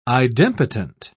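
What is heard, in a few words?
"idempotent", British English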